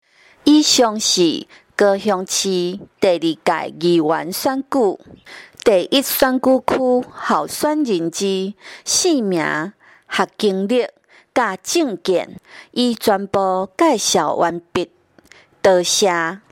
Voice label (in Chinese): 台語; 第一選區